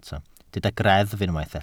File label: "greddf", Welsh